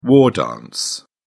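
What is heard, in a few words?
"war dance", English, British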